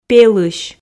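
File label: "пелысь", Komi, female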